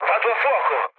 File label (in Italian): on fire, mood low, speech it; War Thunder